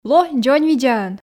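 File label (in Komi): ло дзоньвидзаӧн, Komi, female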